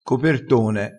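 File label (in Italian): "copertone", dialettale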